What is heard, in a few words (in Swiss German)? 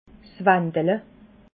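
"wandele", Alsatian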